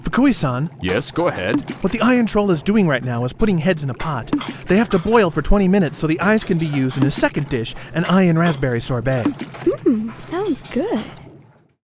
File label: Angry; warcraft3; Witch Doctor